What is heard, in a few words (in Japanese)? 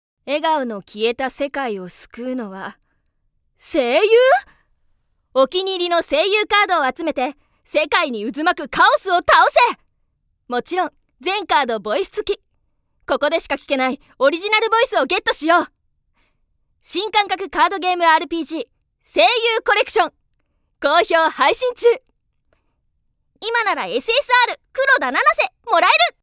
WEB CM(女性 凛々しい)